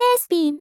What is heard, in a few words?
"sspin", vocal; miku